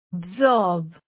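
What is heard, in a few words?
"absorb", Indian female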